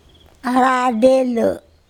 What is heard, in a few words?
"araDello", Andamanese; pregnant